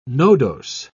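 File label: "nodose", British English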